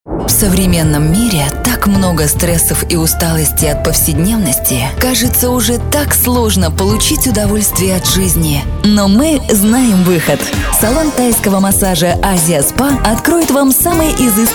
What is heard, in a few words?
locutora rusa russian voiceover